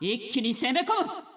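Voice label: Japanese; 索杰恩（守望先锋2）; 终极技能; 一気に攻め込む！; 立刻进攻！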